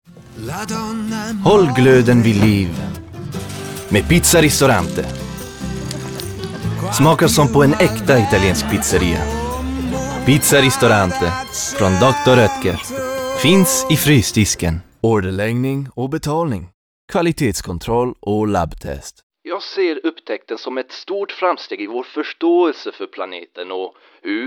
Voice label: male swedish

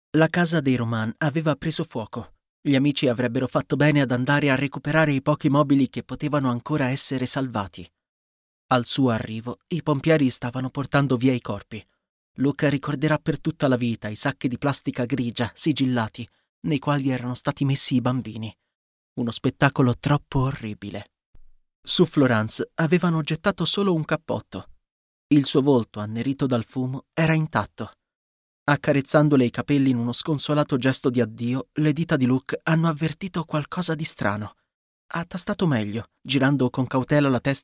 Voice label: male italian narration; voices

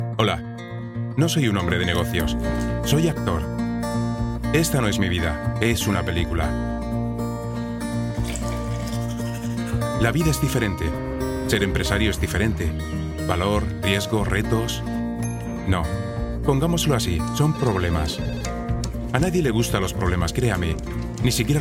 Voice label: male spanish castilian corporate; voices

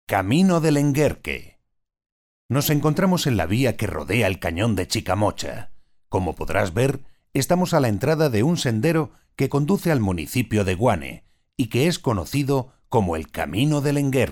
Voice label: male spanish castilian audio guide

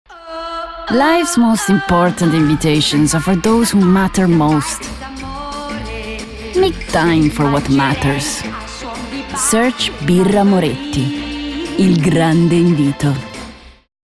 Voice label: female English with Italian accent; birra moretti

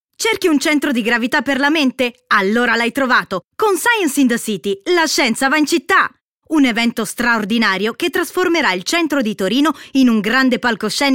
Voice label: female, Italian commercial

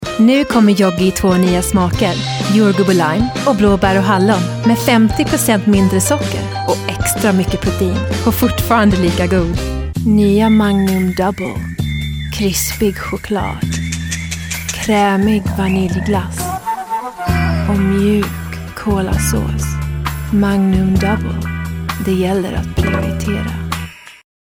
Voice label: female Swedish commercial compilation

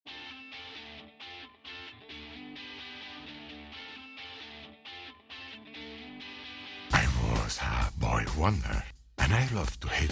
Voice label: male english with spanish accent performer

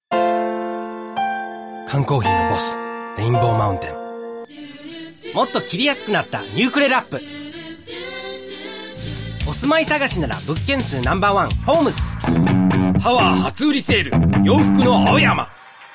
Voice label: male japanese commercial compilation

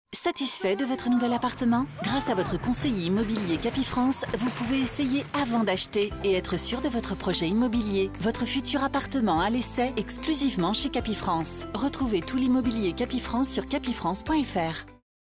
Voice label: female French TV commercial; capifrance

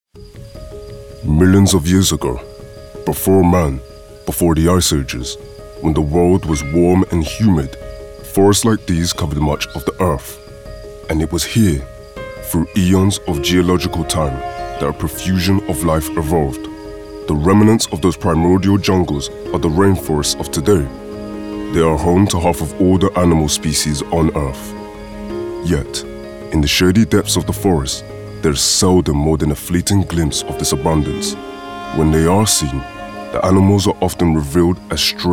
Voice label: male English documentary narration compilation

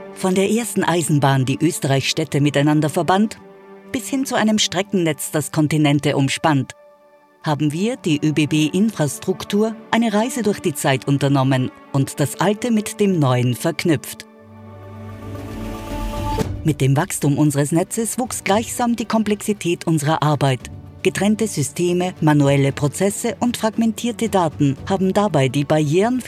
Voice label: female austrian corporate explainer; oebb

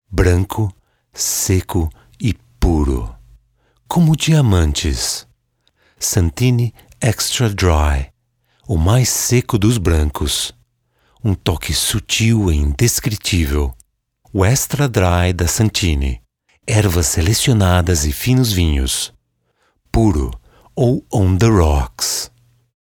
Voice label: male brazilian portuguese commercial

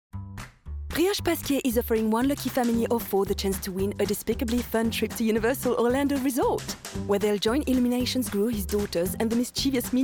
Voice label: female English with French accent; voices; brioche pasquier minions mayhem commerci

